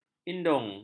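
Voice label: "indung", Malay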